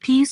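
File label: "Peas", pronunciation; vegetables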